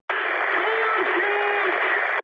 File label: eng chat, speech eng